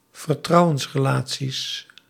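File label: "vertrouwensrelaties", Dutch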